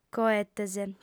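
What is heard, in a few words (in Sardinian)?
"coetes", female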